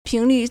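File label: "pin2lv4", Mandarin Chinese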